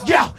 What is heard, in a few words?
"yo", Voice